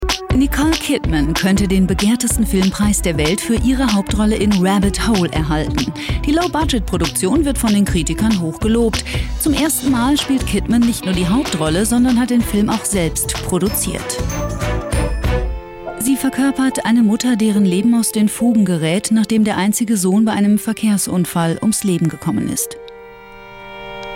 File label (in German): Neutral; Fremdtake